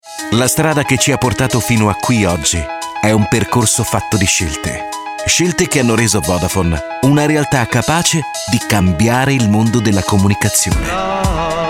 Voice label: it commercial